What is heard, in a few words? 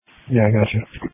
Police Tactical Encrypted